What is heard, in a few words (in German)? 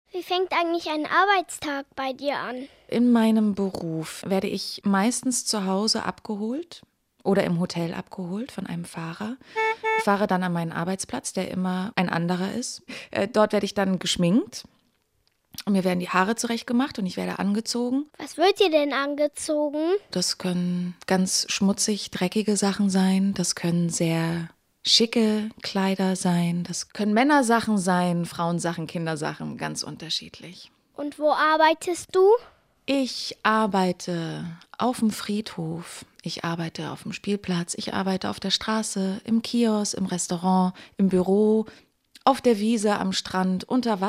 interview; WDR5